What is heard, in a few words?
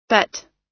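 "bet", US English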